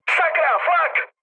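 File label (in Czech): Czech damaged anti-aircraft, mood medium; speech; War Thunder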